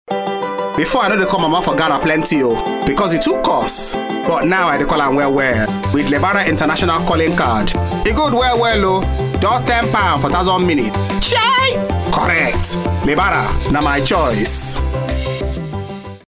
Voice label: pidgin english